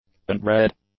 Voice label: "unbred", English; adjectives